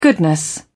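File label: "goodness", British English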